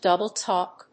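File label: "double-talk", English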